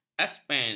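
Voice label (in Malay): "aspen", Malay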